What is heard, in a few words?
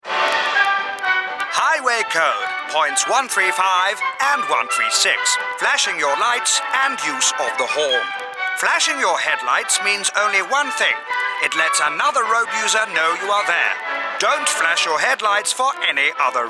Advert; Highway Code